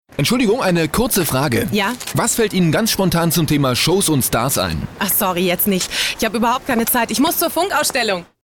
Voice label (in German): Sprecherdemo Werbung; Funkausstellung